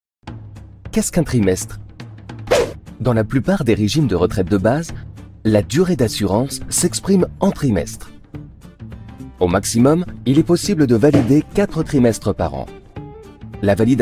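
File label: narr, male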